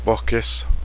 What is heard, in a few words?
kitten, cheyenne language